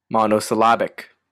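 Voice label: "monosyllabic", Canadian English; pronunciations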